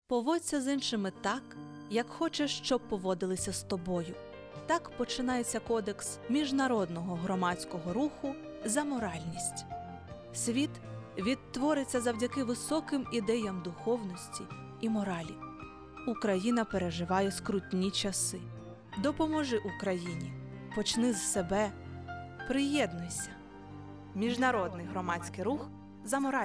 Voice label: radio ukr